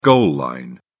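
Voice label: "goal line", British English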